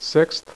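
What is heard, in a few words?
"sixth" spoken, poker; iPoker 2000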